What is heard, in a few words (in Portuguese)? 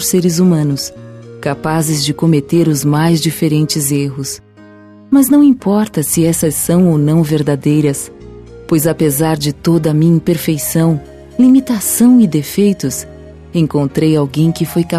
Namorados pra Amor Vencedor, Telemensagens